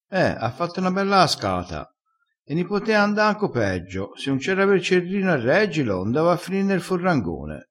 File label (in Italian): "cascata", crespinese